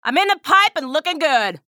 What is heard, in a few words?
chatter neutral; athena